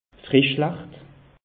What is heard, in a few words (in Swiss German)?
"frischlacht", alsace; meteo